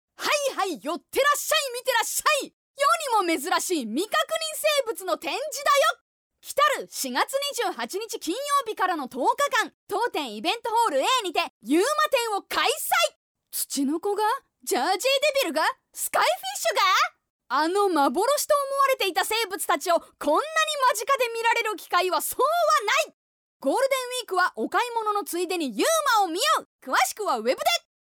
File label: female